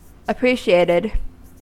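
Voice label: "appreciated", US English; pronunciations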